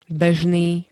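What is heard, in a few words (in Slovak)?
"bežný", Slovak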